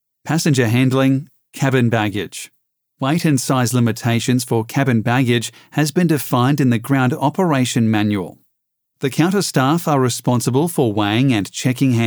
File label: english australian e-learning